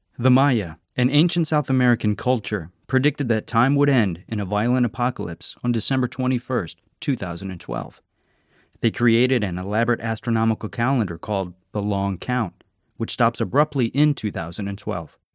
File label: male american english doc comercial; ideasonora